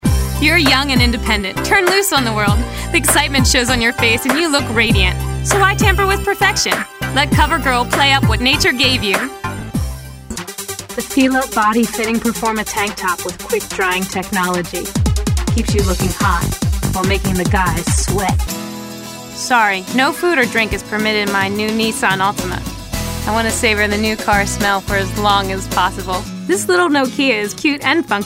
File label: Commercial Voice Over